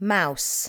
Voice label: "mouse", english

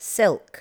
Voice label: "silk", L2 English

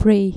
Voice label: "pray", dutch

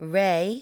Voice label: "rij", english

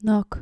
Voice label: "knock", dutch

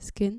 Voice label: "skin" dutch

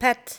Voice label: "pet", english

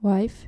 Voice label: "wife", dutch

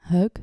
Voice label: "hug", dutch

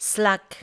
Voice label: "slak", english

